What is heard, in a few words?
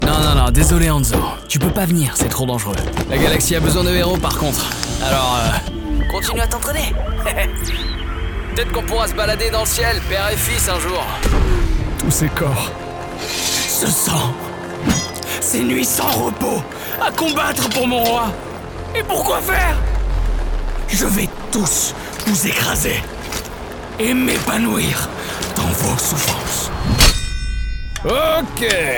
FULL Video-Game Demo FR, French Voice Actor